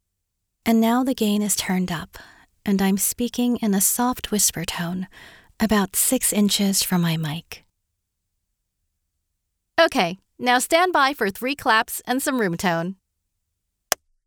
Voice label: Studio Sample

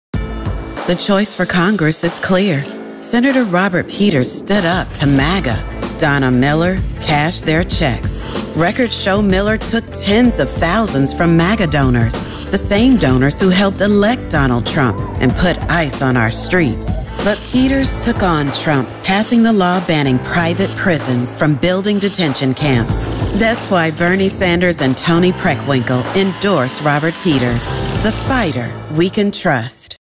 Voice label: voiceover for political attack ad; Choice for Congress

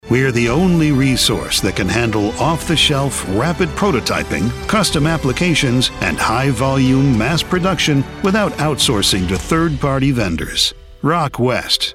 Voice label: CORPORATE IMAGE B2B

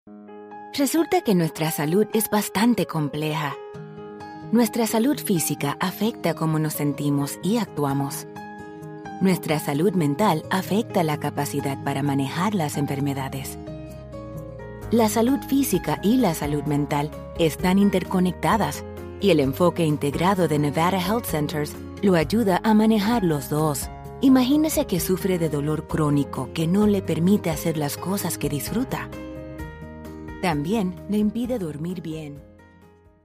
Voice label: Medical Spanish

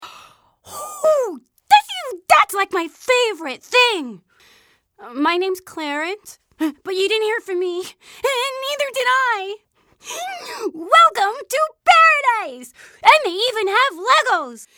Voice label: Animation

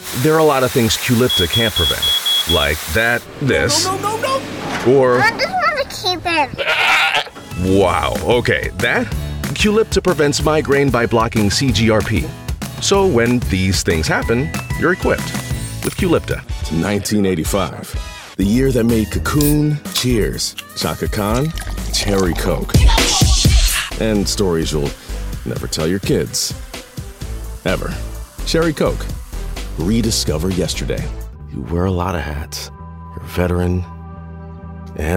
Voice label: Commercial, voiceover

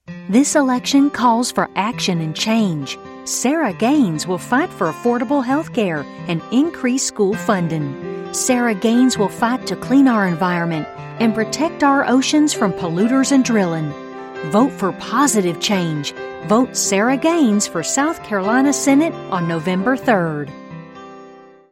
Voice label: Carolinas Political, voiceover

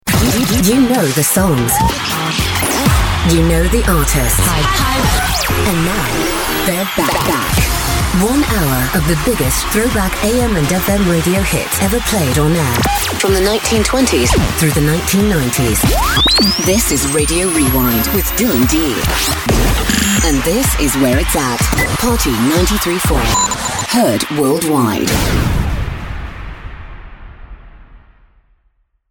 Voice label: British Radio Imaging

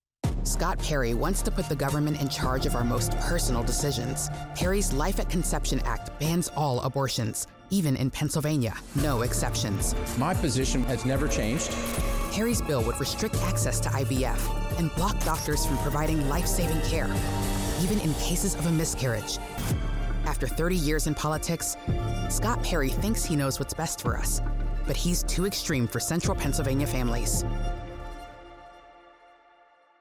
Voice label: political attack ad, voiceover; Too Extreme on Abortion

